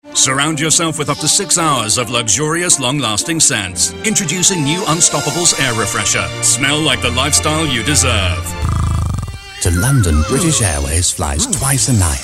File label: commercial, men